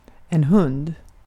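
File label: "hund", Swedish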